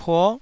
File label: "puo9", taiwanese